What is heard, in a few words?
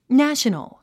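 "national", English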